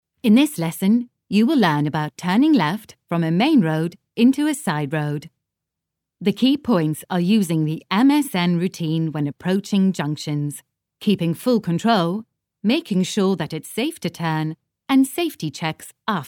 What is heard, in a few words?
english british automotive